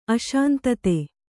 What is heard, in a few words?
"ಅಶಾಂತತೆ", Kannada